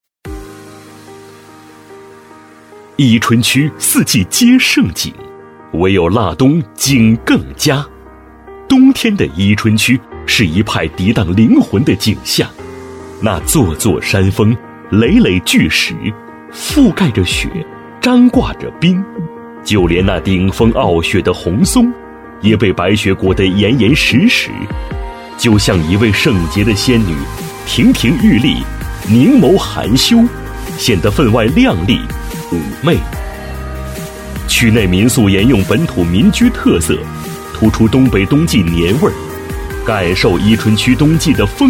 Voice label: 男国 宣传片 旅游 解说 浑厚; 伊春区旅游解说

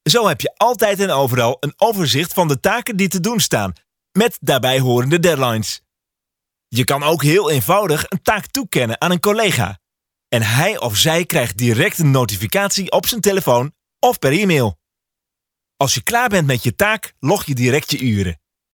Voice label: 荷兰语男 外语 小语种

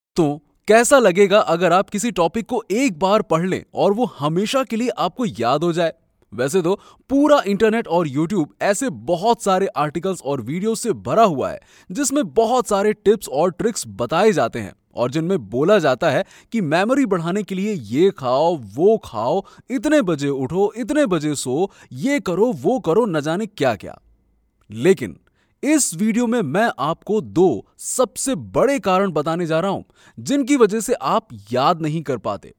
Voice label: 印地语男 外语 小语种 样音

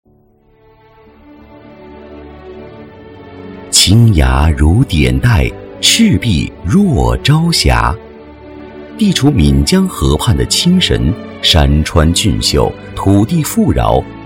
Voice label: 专题 政府 供电人 大气, 男

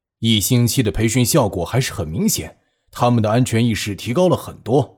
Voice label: 动画 角色 培训师角色, 男

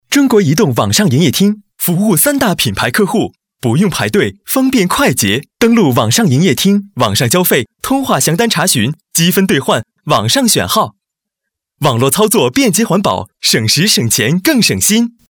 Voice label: 广告 亲切; 品牌形象; 中国移动通信网上营业厅

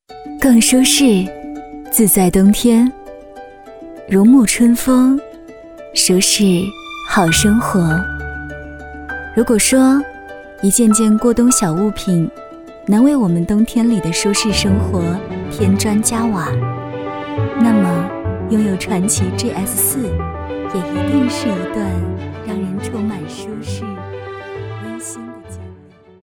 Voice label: 女 广告 汽车 知性; 广汽

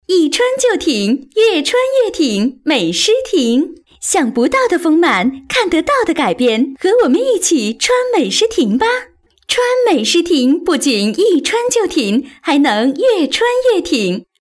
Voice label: 广告 电购 丰胸产品

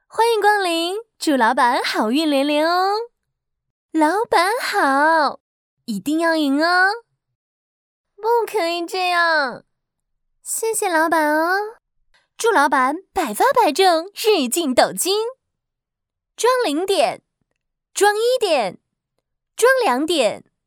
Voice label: 动画 游戏, 女; 游戏斗地主

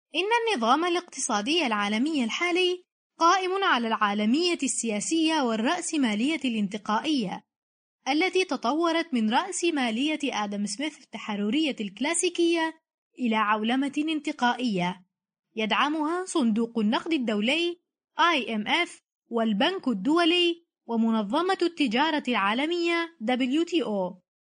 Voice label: 阿拉伯语女 外语 小语种

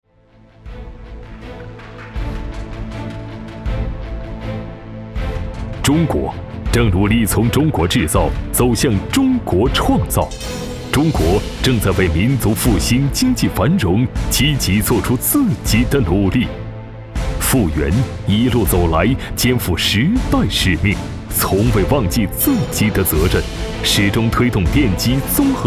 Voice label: 男国 宣传片 企业 稳重; 富源

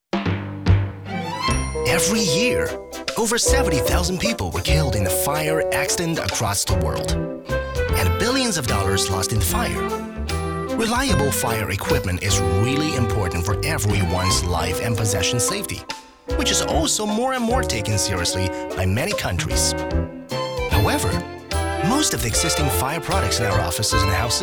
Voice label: 男英 宣传片; 病毒; 英语; 飞碟说英文飞碟说

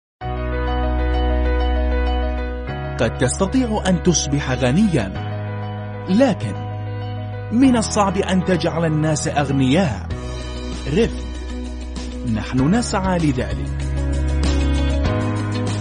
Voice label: 阿拉伯语男 外语 小语种 活力

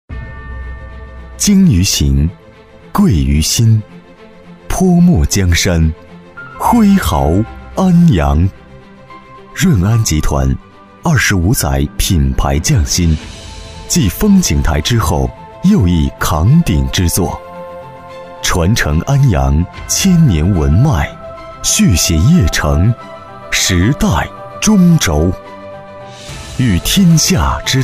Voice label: 男 专题 稳重; 润安枫林台

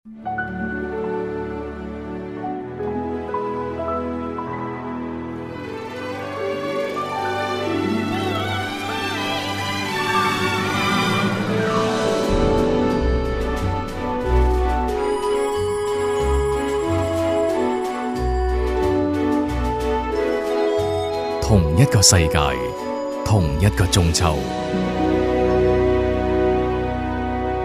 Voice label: 男粤 广告 品牌形象 大气, 粤语; 哈根达斯

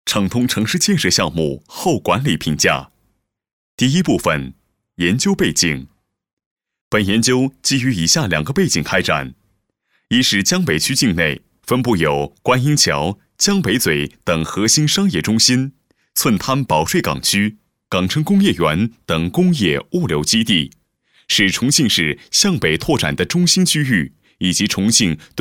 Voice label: 男国 多媒体 项目规划 道路解说

